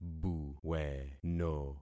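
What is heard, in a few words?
"buweno", concatenated syllables; Affixing experiment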